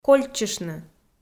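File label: "кольччыштны", Komi, female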